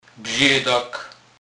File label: bzedak, Kurpie; slownik